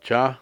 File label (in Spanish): "león", Cucapá